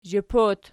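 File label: "zhip" plural, Archi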